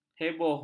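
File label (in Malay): "heboh", Malay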